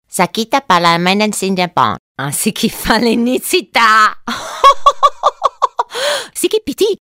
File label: Spanish